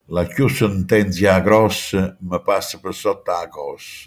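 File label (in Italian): "sottogamba", Manfredonia dialect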